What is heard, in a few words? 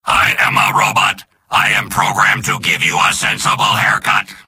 robot; soldier; video games; source engine; tf; mvm